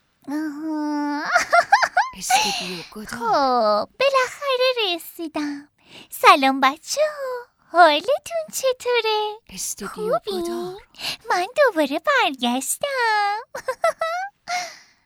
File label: dub